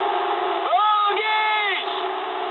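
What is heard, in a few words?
English enemy detected mood low; War Thunder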